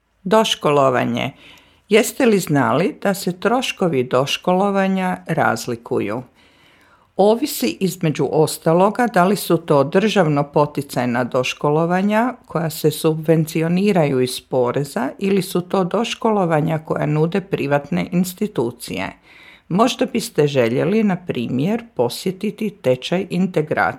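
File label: Croatian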